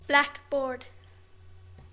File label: "tafel", English; classroom